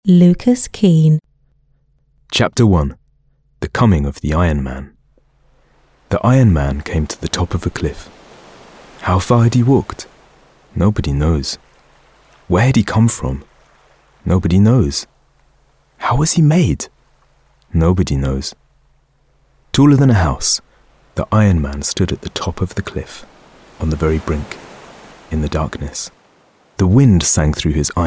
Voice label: Narration